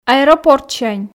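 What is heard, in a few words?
"аэропортсянь", Komi, female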